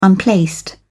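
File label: "unplaced", British English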